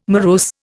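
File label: "morose", Indian English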